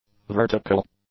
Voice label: "vertical", English; adjectives